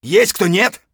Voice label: scav mutter, voice; Escape from Tarkov; scav1